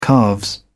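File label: "calves", British English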